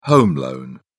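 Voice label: "home loan", British English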